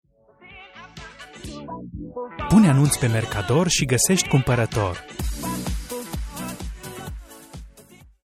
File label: Romanian Commercials